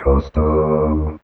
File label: Vocal Robot; Ableton Live 12 Lite; Core Library